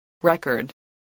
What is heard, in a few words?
"record", voice, english